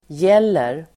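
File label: "gäller", Swedish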